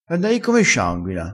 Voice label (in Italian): "scianguina", dialettale